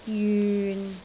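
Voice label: "jyun6", Cantonese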